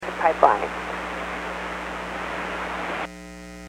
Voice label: Aviation 122.7; KSTE

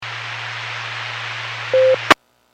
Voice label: Skywarn 146.67; Stevens Point

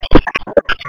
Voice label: Police Dispatch; 155.67 Stevens Point PD

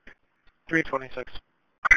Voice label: Police Sheriff Dispatch

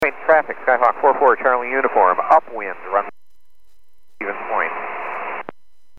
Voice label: Aviation 122.7; KSTE

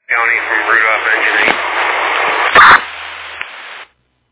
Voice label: Fire Dispatch

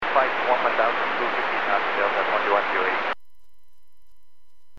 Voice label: Aviation 121.5 Emergency

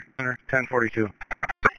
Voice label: Police Dispatch

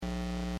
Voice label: Aviation 121.5 Emergency

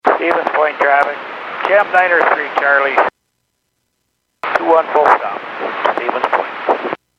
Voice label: Airports 122.7, Radio; KSTE

